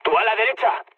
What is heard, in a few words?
right wing, mood low, speech; War Thunder